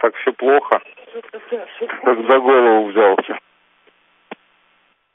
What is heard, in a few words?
Space to Ground; Expedition 39